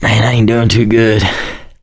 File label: going to die, survivor voice mechanic